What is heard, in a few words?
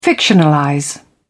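"fictionalize", English, British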